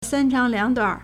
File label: "san1 chang2 liang3 duan3", Chinese